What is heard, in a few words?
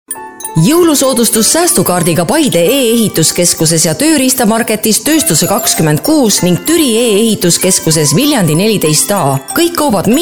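EE F commercial, Estonian, female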